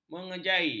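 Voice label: "mengejai", Malay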